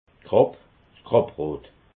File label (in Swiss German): "krapp", alsace